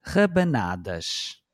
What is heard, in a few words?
"rabanadas", portuguese